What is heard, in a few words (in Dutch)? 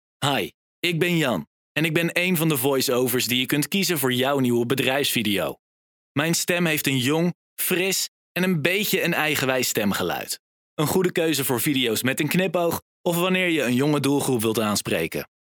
voiceover; devideofabriek